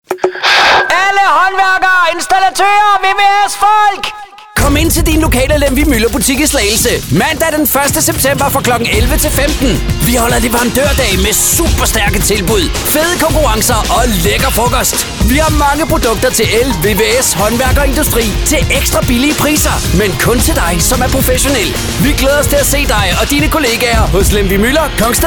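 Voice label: Danish hard sell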